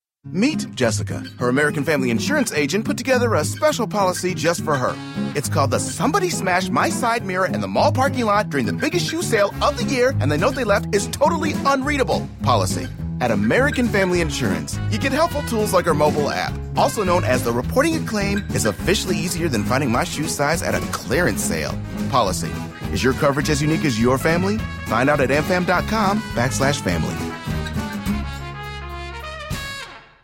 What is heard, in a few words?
announcer